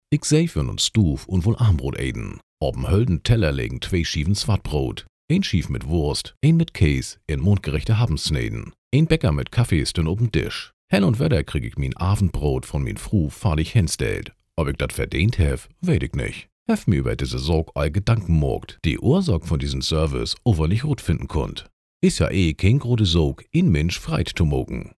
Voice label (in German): plattdeutsch; Muttersprache Deutsch